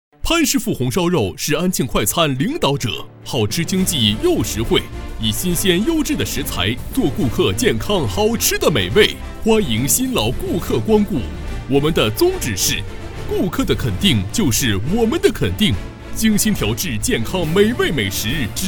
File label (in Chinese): 促销; 潘师傅红烧肉